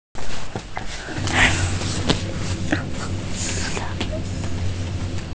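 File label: "le4", Mandarin Chinese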